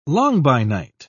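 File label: "langbeinite", British English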